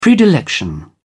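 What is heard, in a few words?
"predilection", English, British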